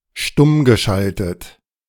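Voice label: "stummgeschaltet", German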